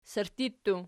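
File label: "sirtti" plural, Archi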